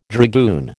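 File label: "dragoon", American English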